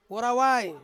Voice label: "worawai", Ansus; cassowary